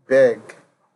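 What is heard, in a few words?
"beg", Nigerian Pidgin, male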